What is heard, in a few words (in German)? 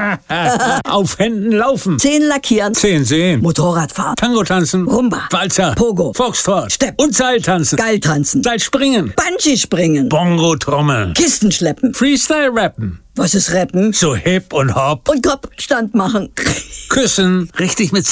Funkspot Wanne; Nepos